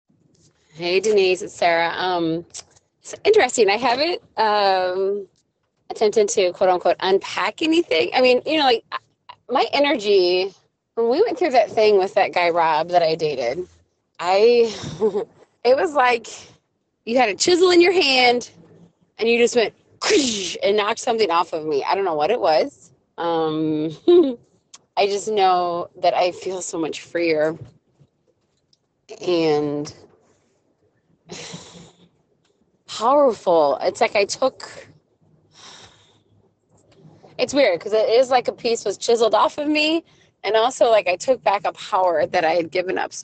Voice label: audio message